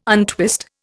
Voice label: "untwist", Indian